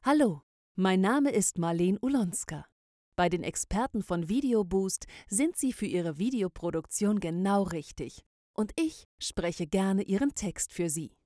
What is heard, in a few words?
Voiceover